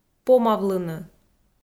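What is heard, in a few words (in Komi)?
"помавлыны", Komi, female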